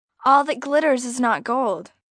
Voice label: All That Glitters, Sayings, 1 girl, 12 yrs; Talking; VoiceBox